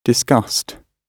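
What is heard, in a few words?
"discussed", British English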